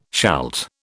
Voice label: "shalt", British

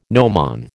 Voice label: "gnomon", American

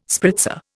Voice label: "spritzer", Indian

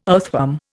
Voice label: "earthworm", Indian

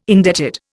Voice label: "indebted", Indian

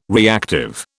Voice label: "reactive", British

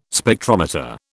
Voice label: "Spectrometer", British

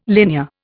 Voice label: "linear", Indian

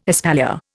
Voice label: "espalier", Indian

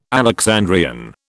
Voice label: "Alexandrian", British

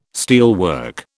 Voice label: "steelwork", British

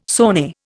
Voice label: "sone", Indian

